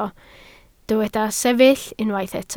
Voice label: "sefyll", Welsh